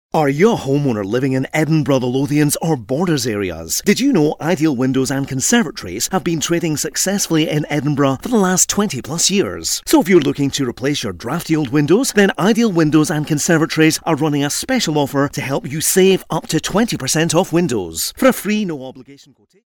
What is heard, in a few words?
male scottish voice over sales